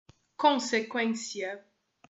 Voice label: "consequência", portuguese

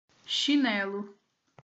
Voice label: "chinelo", Portuguese

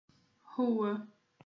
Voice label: "rua", portuguese

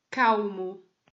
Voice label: "calmo", portuguese

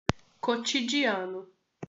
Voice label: "cotidiano", portuguese

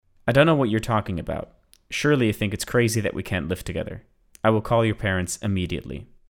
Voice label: English American Accent

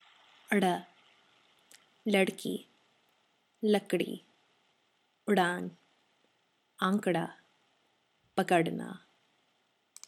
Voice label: r sound

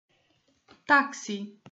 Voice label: "taxi", Portuguese

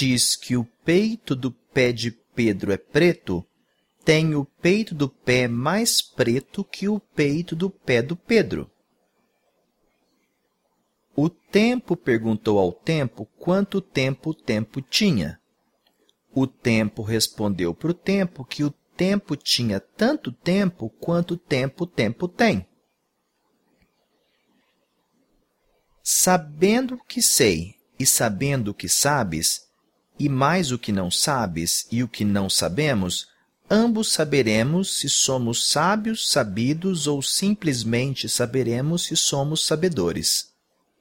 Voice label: tongue twisters long, portuguese

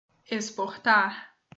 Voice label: "exportar", portuguese

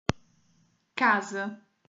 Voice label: "casa", portuguese

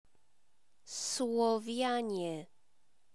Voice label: "slowianie" Polish

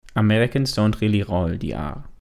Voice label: American German r

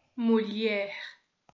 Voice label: "mulher", portuguese

